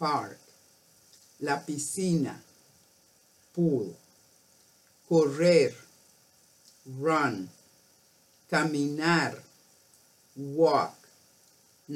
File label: Having Fun Outdoors Spanish; 3-5 Years